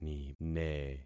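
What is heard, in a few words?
"nine", concatenated syllables; Affixing experiment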